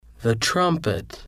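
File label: the Trumpet, slow; english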